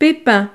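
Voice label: "pepin", French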